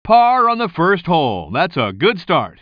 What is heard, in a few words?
commentator